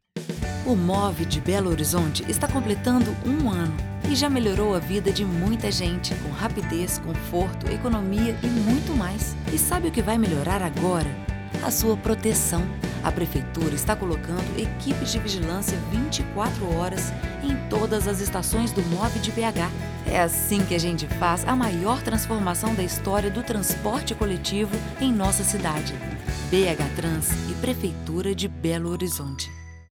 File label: spot; movebh